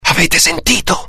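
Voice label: curious, Italian; mohaa; dialogue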